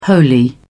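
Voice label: "holy", British English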